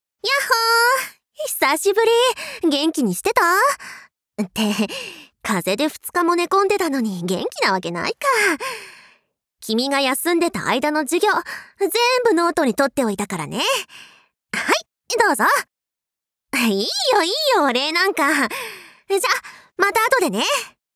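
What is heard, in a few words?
cheerful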